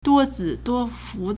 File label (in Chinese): "duo1zi3duo1fu4", Chinese